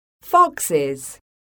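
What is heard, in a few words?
"FOXES", English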